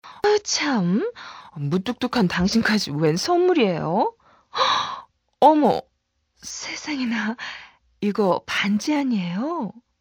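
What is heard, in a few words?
Korean female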